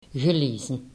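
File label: "gelezen", Dutch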